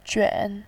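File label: "juan", Mandarin, third tone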